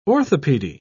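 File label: "orthopaedy", British English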